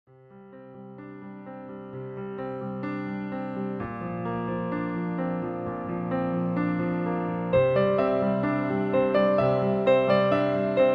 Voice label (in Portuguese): ANIME SE M; OTIMISMO; Masc